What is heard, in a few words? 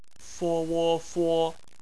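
"fo" bopomofo, Chinese; phonetics; bpmf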